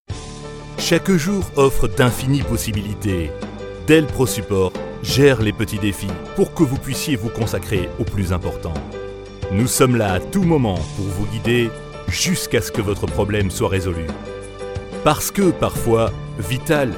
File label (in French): Voix grave rassurante; Dell Pro Support